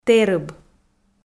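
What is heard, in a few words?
"тэрыб", Komi, female; audio dict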